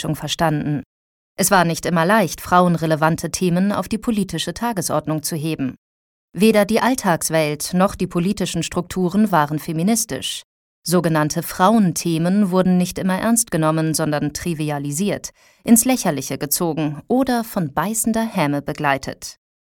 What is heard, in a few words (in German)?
Imagefilm Frauenreferat